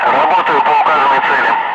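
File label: Russian speech, Ka-50 Wingman; Messages engaging designated target; DCS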